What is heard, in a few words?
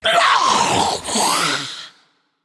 Female Naga Death